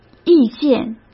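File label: "意见", Mandarin Chinese; chinese flashcards; hsk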